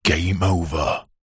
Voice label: human male voice says game over